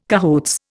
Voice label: "cahoots", Indian